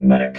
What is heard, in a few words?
"medic", robot